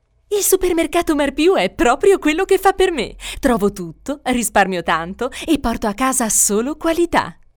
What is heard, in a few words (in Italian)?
ITALIANO DINAMICA